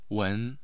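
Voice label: "wen2", Mandarin Chinese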